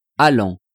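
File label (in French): "allant", French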